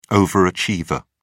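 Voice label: "overachiever", British English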